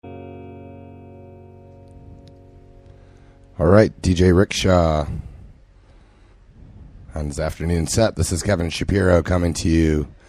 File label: radio banter; Super Ball IX